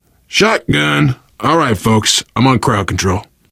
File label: take shotgun, player survivor voice biker; source engine; left 4 dead 2